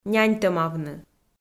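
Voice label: "няньтӧмавны", Komi, female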